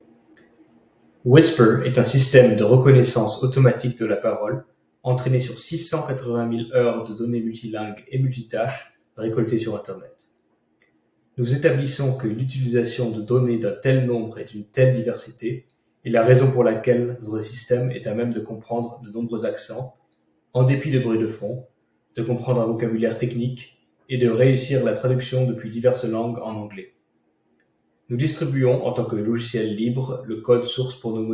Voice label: french